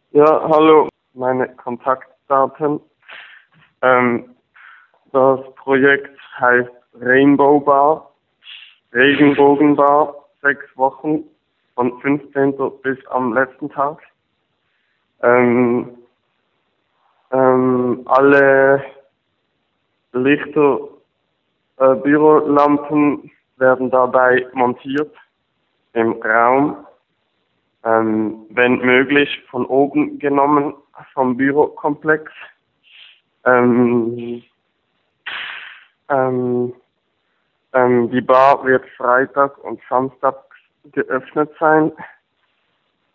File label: Voicemail